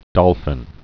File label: "dolphin", English